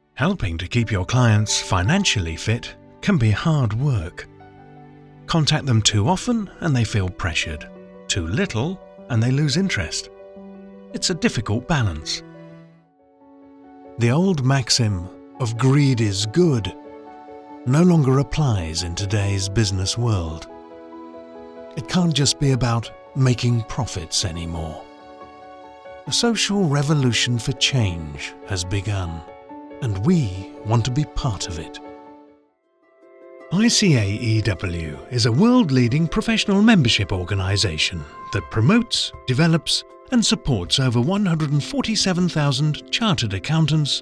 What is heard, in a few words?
Corporate